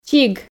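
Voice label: "чиг", Komi, female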